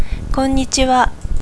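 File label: "konnichiwa" Japanese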